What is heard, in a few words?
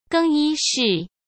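"更衣室", Mandarin Chinese; chinese-flashcards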